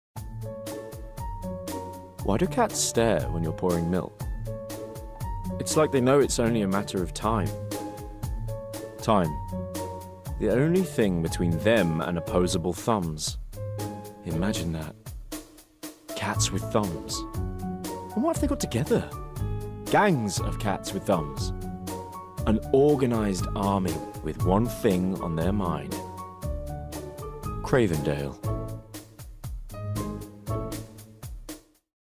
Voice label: COMMERCIAL; Cravendale